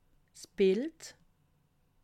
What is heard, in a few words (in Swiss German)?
"bild", alsace